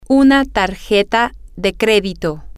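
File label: credit card, Spanish, female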